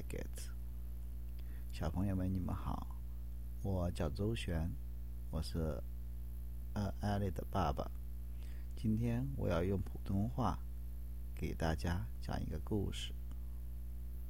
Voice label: Intro Mandarin